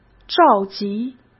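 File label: "召集", Mandarin Chinese; hsk; chinese flashcards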